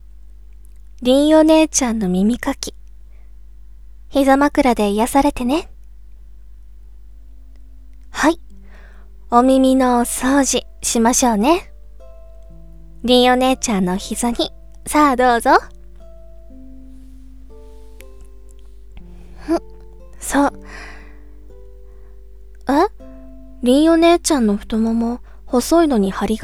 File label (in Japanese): BGM 効果音入り お姉ちゃんの耳かき。膝枕で癒されてね?; 凛お姉ちゃん